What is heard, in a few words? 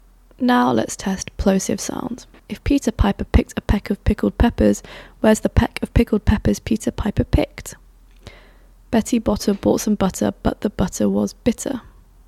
plosives; maono-pd300x-microphone-review